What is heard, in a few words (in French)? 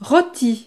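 "roti", French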